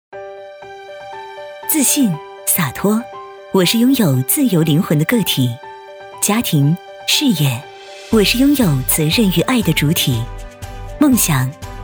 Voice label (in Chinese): 广告 个性洒脱